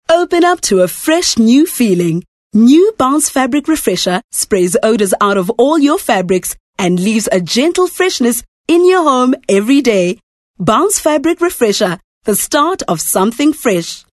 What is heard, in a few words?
English Bright